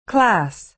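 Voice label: "class", American English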